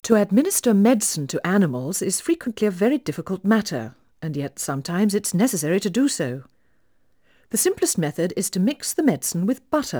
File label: Female speech English Mono output noised, 3bit